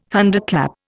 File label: "Thunderclap", Indian